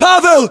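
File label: "pavel", battlechatter, Russian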